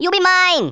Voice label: "I'll get you", Rasta